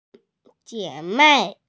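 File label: "jie3mei4", Mandarin Chinese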